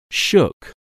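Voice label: "shook", English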